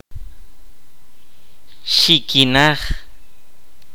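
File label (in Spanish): "oído", kaqchikel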